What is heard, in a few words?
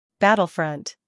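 "scandal", American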